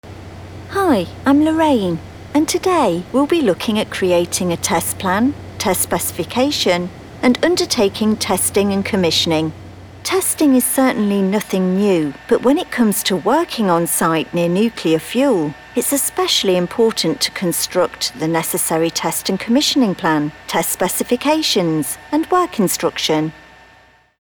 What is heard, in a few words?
East Midlands Accent Technical